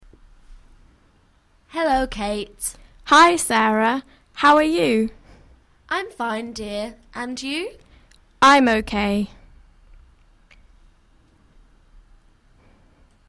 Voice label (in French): anglais dial